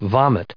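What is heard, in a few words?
"vomit", English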